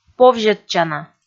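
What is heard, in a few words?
"повзьӧдчана", Komi, female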